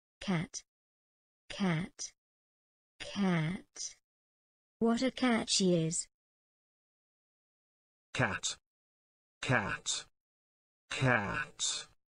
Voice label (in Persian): تلفظ انگلیسی کلمه کت; تلفظ گربه به انگلیسی